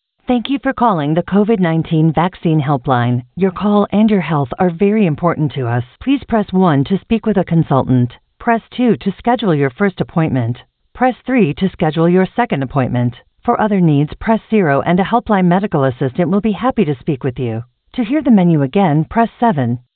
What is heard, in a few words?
COVID19 Helpline, voiceover; Voiceover for Telephone Systems and IVR